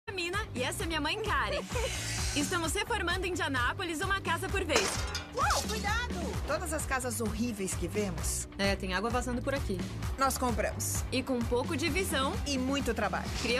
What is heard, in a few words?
portuguese brazilian tv shows